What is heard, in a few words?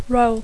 "role", English